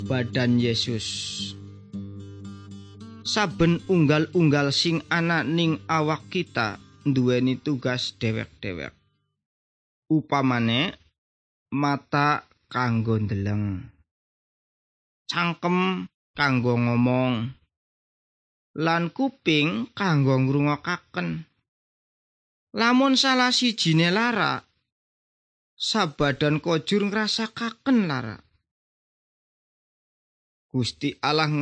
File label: good news jawa indramayu, javanese bible